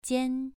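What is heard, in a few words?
"jian", Mandarin Chinese, second tone, pronunciation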